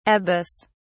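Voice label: "abas", British English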